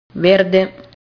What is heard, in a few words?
"verde", Italian